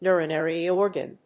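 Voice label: "urinary organ", English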